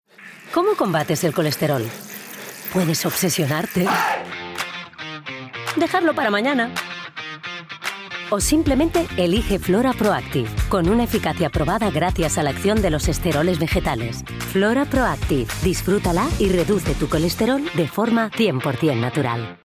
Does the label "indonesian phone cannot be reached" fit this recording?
No